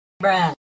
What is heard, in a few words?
"bruh"